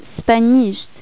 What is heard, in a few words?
"Spanjisht", Albanian; Spanish